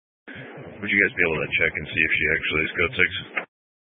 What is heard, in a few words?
Sheriff Dispatch